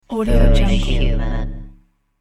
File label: robotic vox foolish human